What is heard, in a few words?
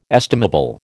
"estimable", American